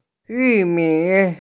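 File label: "yumi", Mandarin Chinese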